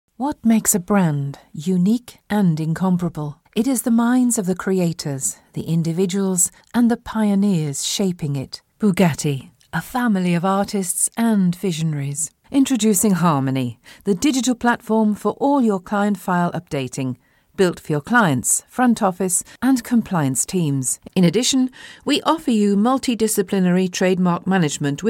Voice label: corporate